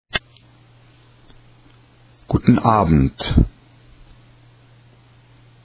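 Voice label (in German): "Guten Abend", German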